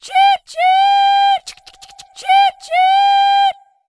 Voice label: Night Elf Female Choo Choo